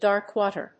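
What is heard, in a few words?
"Dark Water", English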